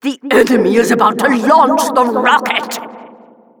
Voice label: rocket warnings, announcer